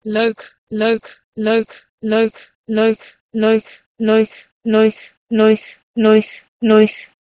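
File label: Proto-Indo-European leuk to Armenian luys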